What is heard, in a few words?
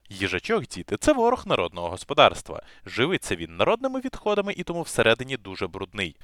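HyperX, microphone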